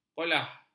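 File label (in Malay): "kolah", Malay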